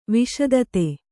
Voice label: "ವಿಸ್ತøತಿ", kannada